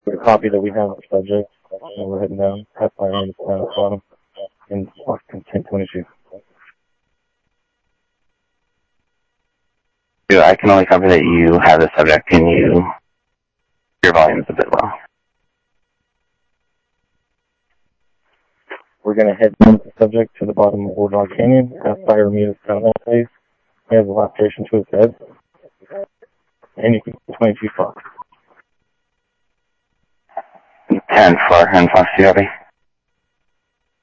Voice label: South Scottsdale Sheriff, scanner audio

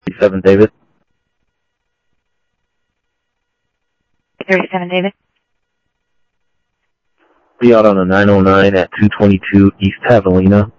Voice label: Central Sheriff Central, scanner audio trunk; MCSO Central Sheriff-Central; ThompsonPeakScot

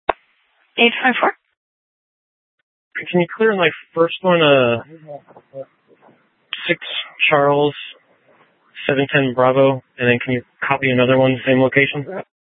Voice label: Sheriff, scanner P25

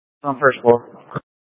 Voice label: Sheriffs Office Central Court Security, scanner; Maricopa County; Thompson Peak

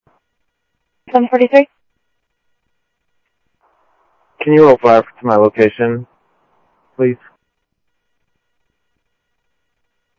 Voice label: Sheriff, scanner audio

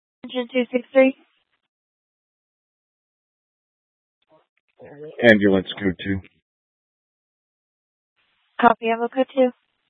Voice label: Fire Departments, scanner

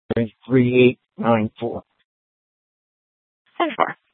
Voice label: Sheriff, scanner

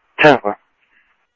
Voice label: scanner audio, Sheriff; South Scottsdale; MCSO - EAST; Sheriff-EastCnty

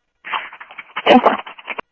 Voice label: scanner audio trunk

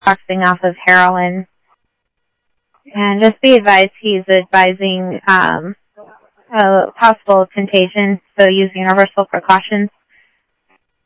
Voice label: Tempe Police, scanner audio; TEMPE PD A04; SimulcastF-Tempe

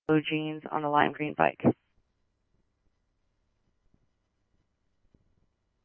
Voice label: Chandler Police, scanner; CPD-Ptrl B; RWC; C-Chandler

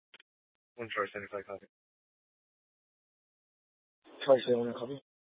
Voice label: Dispatch, scanner

